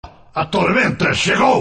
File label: voice português brasileiro, brazilian portuguese; volibear; league of legends